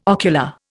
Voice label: "ocular", Indian

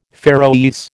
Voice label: "Faeroese", American

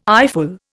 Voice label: "eyeful", Indian

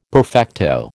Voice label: "perfecto", American

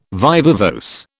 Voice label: "viva voce", British

